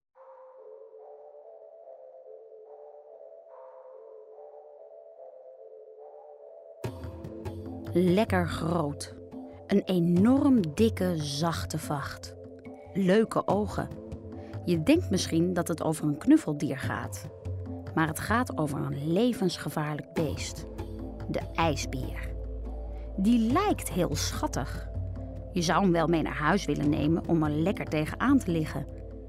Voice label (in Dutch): stemactrice educatief ijsberen